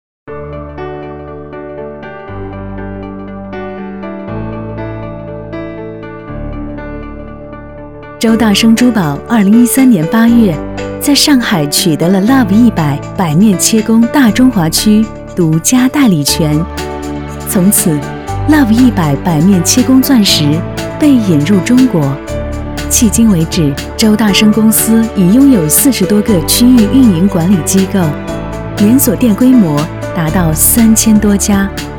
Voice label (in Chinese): 国语女 时尚品牌广告 珠宝; 周大生